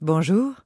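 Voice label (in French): "bonjour", French, dwarf, female; PhoenixCraft-1.16.5; PhoenixNPCPack; minecraft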